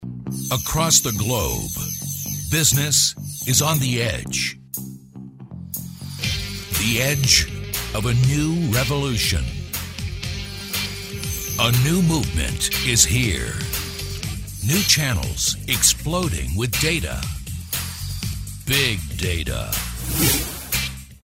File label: tough gritty